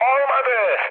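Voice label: Turkish bomb failure, mood med, speech; War Thunder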